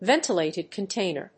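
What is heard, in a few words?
"ventilated container", English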